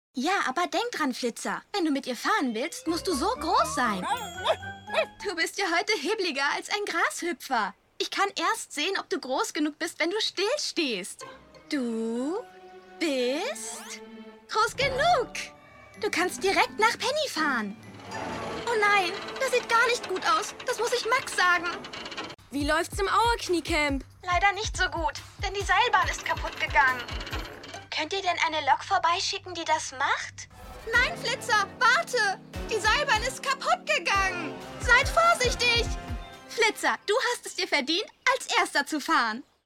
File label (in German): Synchron